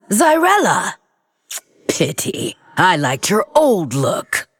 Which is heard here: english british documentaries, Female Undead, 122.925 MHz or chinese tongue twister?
Female Undead